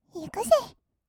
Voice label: 「いくぜ」, kawaii voice; 1200 kawaii voice!!; 色々なワンショット

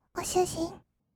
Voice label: "ご主人", kawaii voice; ASMR; 二人称; 1200 kawaii voice!!

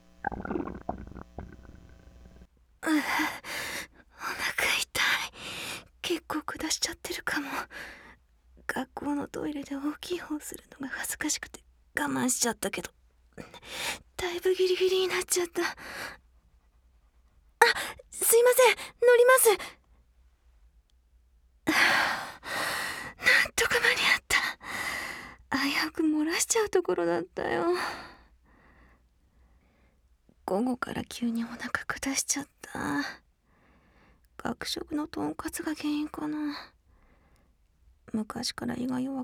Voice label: お漏らしボイス SEあり

